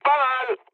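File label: French trick mood low; War Thunder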